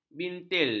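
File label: "bintil", Malay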